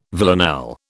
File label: "villanelle", British